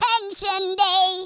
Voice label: drop, Grandpa speech